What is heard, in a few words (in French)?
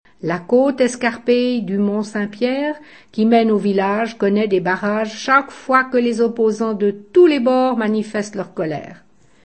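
R phrase, Swiss French Vaud, female; [ʁ]; Suisse : Nyon; II. Le système consonantique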